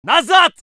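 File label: voice soviet infantry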